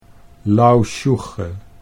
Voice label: "lousjoege", Dutch